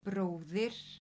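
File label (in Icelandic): "bróðir", Icelandic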